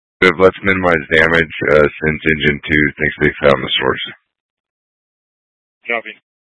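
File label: Incident Tactical 1, Scanner audio recording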